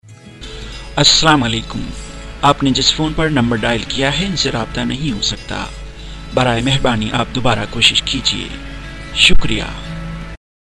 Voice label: urdu

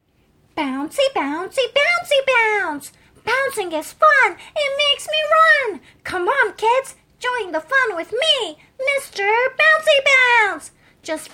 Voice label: cm toy

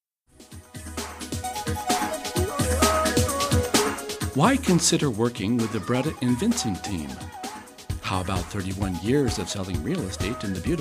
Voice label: soft

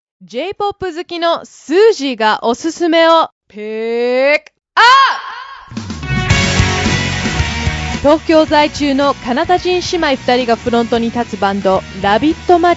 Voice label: japanese

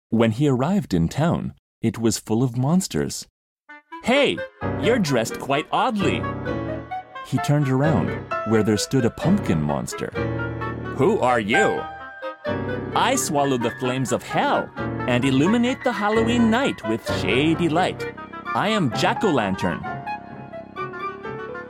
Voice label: story

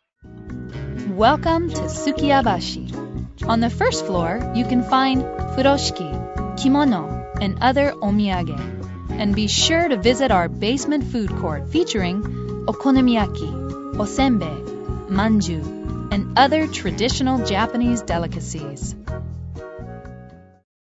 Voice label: narration

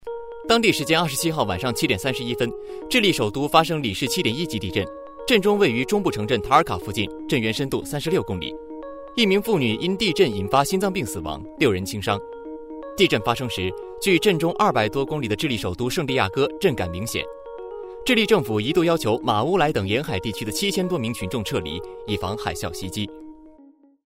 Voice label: news

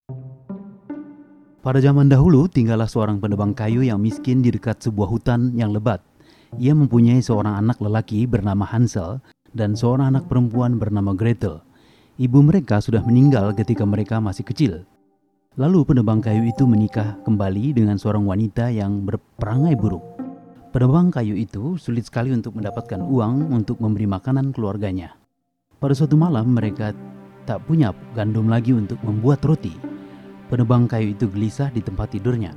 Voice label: story

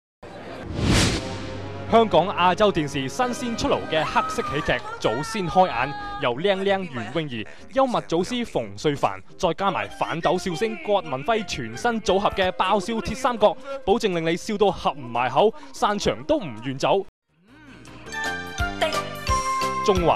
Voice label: TV PR

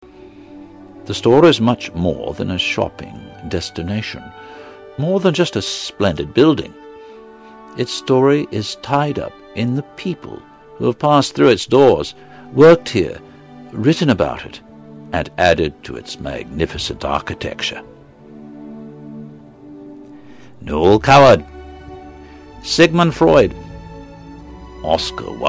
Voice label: British

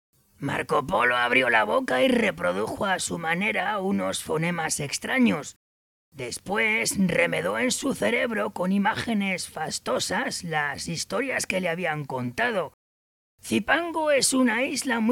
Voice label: oldman

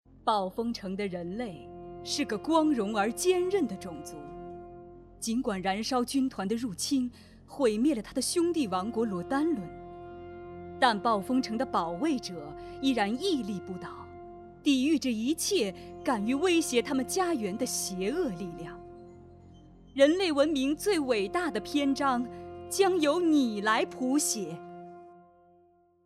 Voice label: cm game

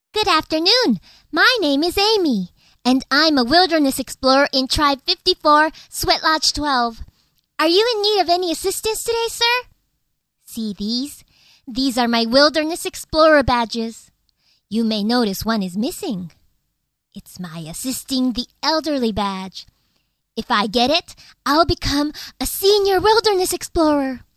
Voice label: girl

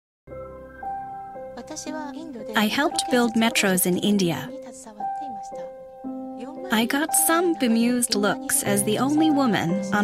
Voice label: voiceover

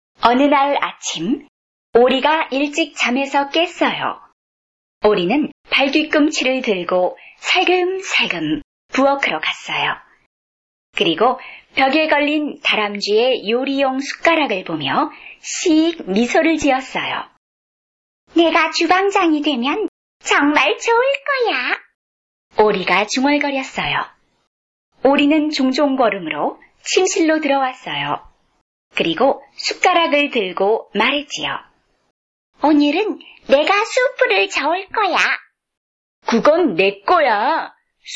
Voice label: story

